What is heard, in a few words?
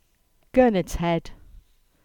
"Gurnard's Head" EN